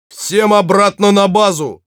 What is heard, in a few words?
taunts, ru